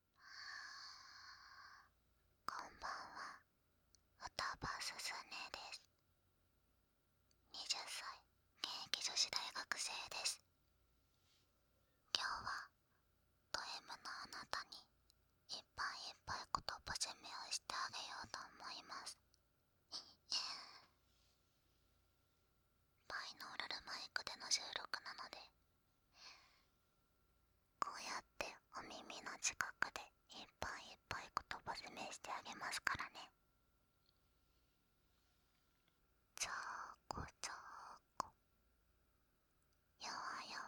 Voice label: ドMな紳士向け言葉責め

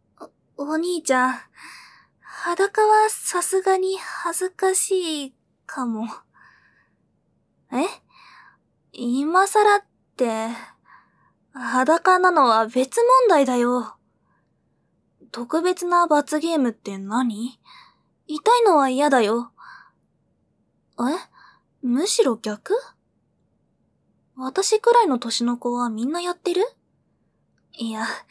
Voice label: 罰ゲームはクリ責めバイブレーション, ASMR

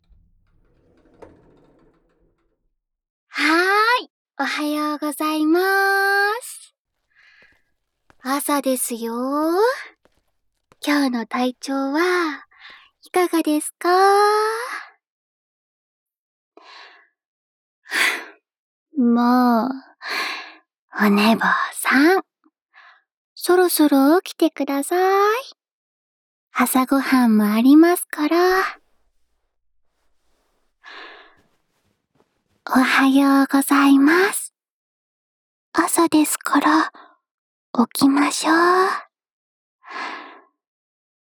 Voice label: 目覚ましボイス; SE有り; 白衣の天使

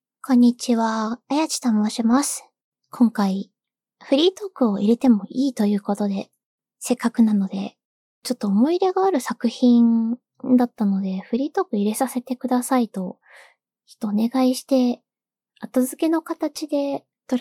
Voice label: フリートーク

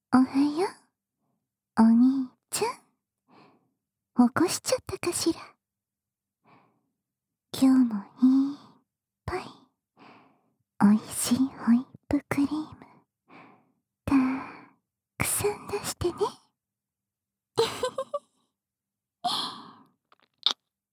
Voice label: えっちな 目覚ましアラーム音声; ヘンゼルのえっちな…

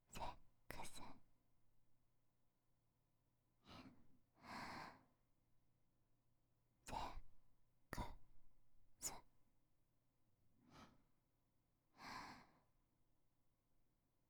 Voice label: 囁き淫語連呼; SEなし

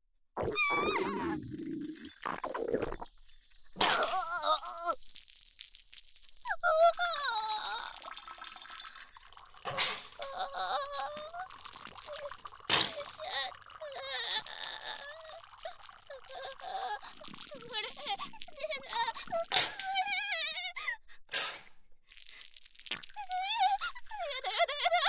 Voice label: 人格排出場面切り抜き 効果音入り

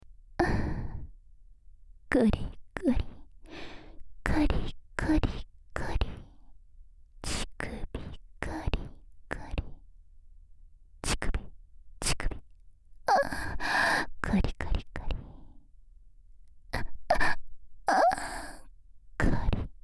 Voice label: サブキャラ 右 淫語連呼 カリカリ, ASMR

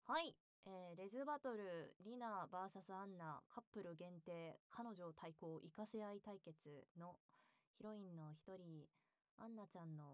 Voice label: 収録後 フリートーク

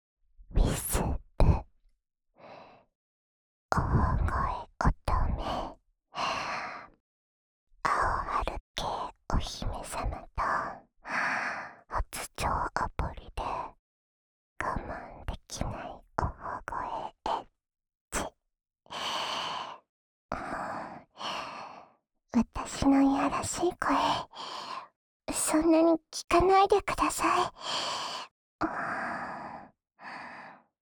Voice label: タイトルコール; お姫様

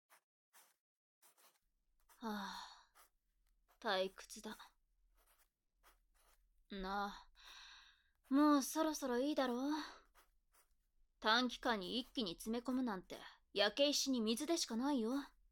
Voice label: 耳舐め手コキで勉強の邪魔をするぞ, 効果音あり, ASMR

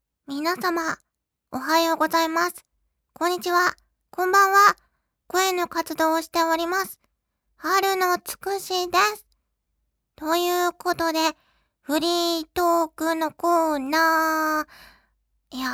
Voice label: 巫女 フリートーク

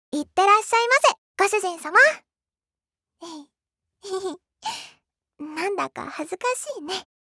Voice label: シチュエーションボイス

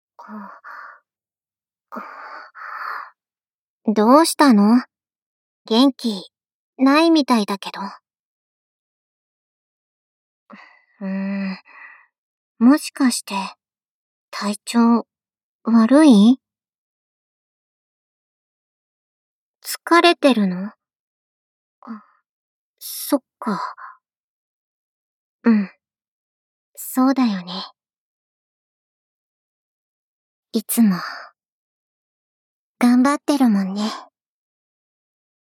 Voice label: 励ましボイス; SEあり

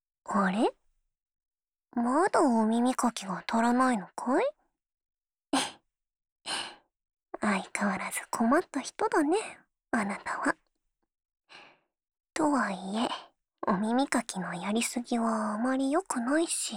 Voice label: オノマトペ(カシカシボイス)（右）, ASMR

